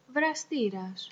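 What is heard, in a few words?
kettle, Greek; household; tuxpaint-stamps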